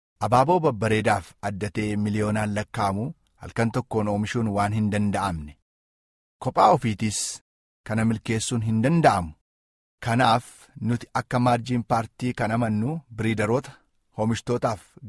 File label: Oromo